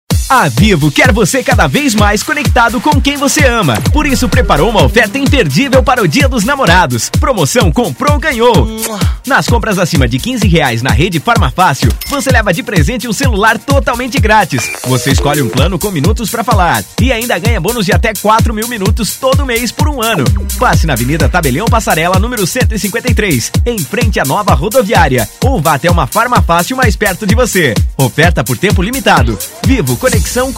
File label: spot; vivo mairipora